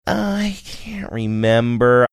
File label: drawn out i cant remember